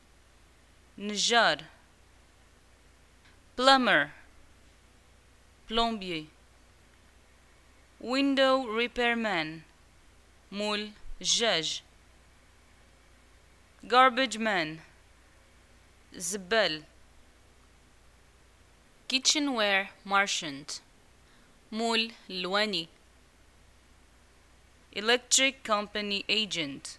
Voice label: jobs, Arabic, North African, Moroccan